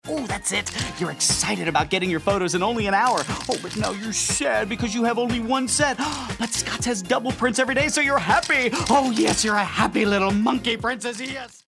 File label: humorous